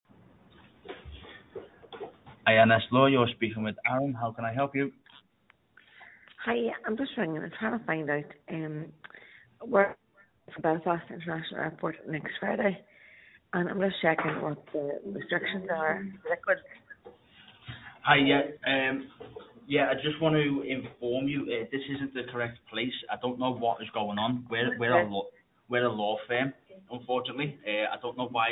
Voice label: call recording